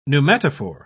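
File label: "pneumatophore", British English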